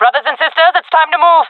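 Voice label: request move, jungle female; vo; agents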